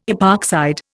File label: "epoxide", Indian